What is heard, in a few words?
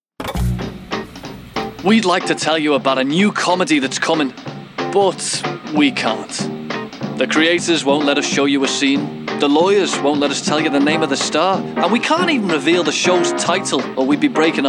Promo Reel; Actor, originally from Liverpool.